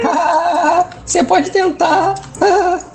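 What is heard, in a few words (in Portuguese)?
haha você pode tentar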